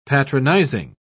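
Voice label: "patronising", British English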